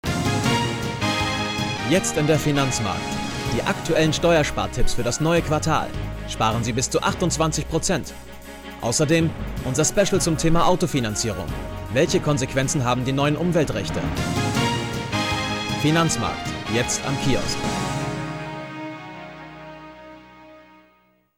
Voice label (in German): werbung off wirtschaftsmagazin